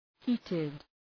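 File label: "heated", English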